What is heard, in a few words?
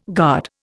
"guard", Indian